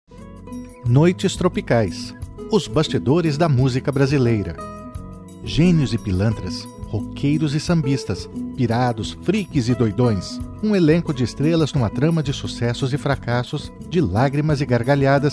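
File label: Brazilian promo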